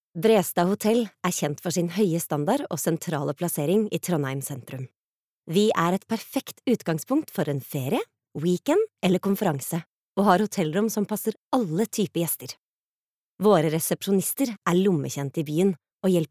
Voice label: no informativ, norwegian, female

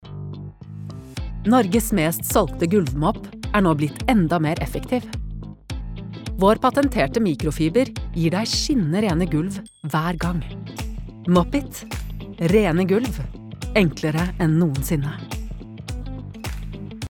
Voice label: reklame, norwegian, female

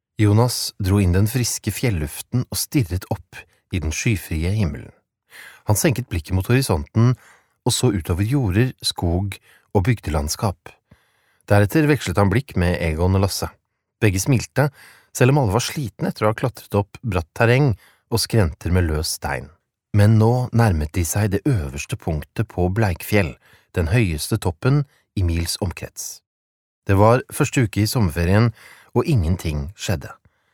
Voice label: no lydbok

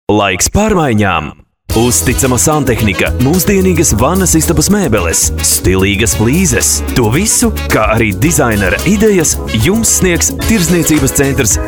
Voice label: lv, latvisk mann

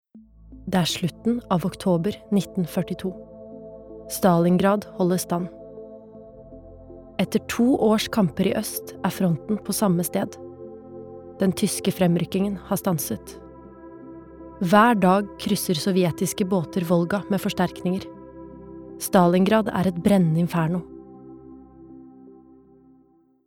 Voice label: dokumentar, Norwegian; female

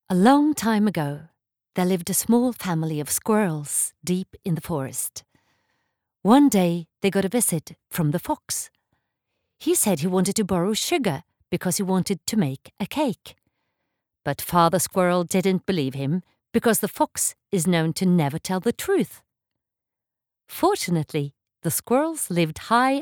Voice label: no engelsk

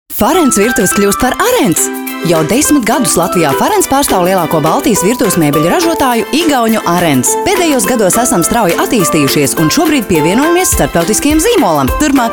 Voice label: lv, kvinne latvisk

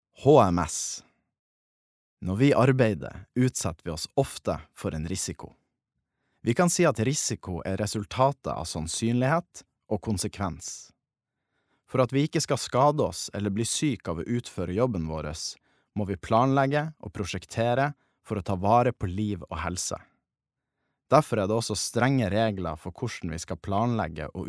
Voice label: e-laering, norsk, mann

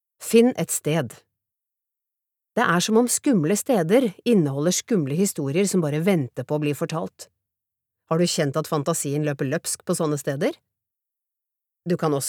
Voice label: no fagbok, norsk, kvinne

